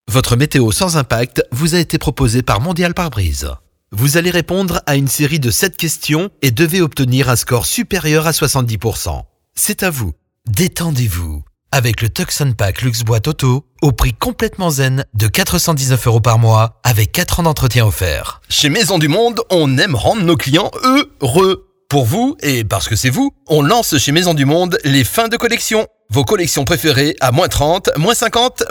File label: French Narration